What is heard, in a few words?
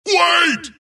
wraith death; dota; skeleton king